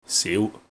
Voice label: "siu2", cantonese